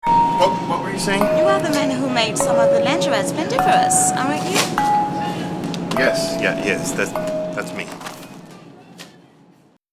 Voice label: 16bit low cut; Vegas 6.0c; no budget inde film